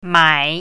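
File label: "mai3", Chinese pinyin, third tone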